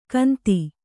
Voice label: "ಗಂತಿ", Kannada